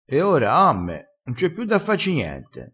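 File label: "amme", Italian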